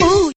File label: "ooh" female